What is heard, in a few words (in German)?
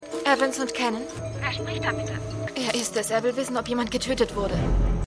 Frau An Anmeldung; Outer Limits